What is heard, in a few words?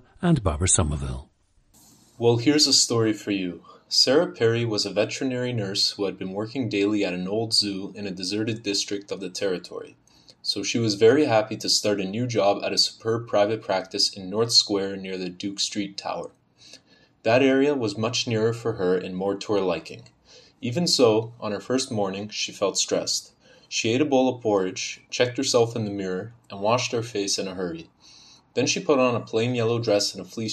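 ontario, International Dialects of English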